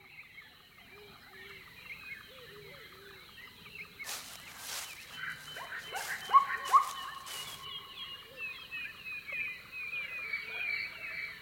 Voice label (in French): Kenya